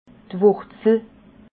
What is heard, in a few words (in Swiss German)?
"wurzle", alsace